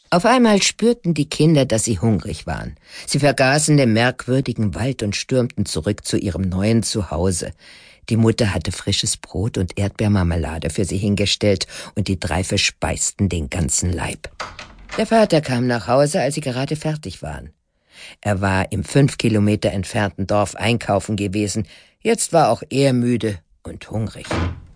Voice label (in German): Hörbuch, frauen; Der Zauberwald